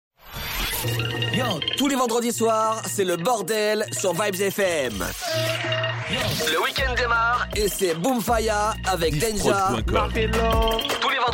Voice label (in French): Jingle Booomm Faya Vendredi 20h; Vybz Fm